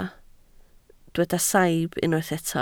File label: "saib", Welsh